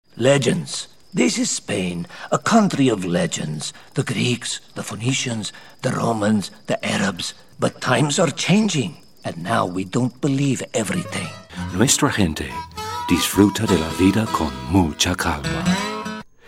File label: character accents vo extracts